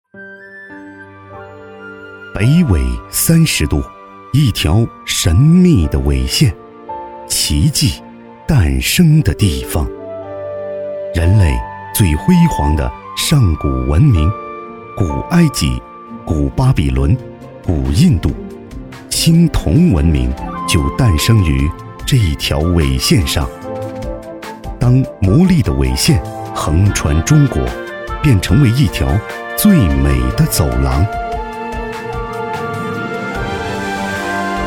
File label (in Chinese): 男 纪录片; 北纬30度; 舌尖